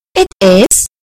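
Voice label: "it is", British English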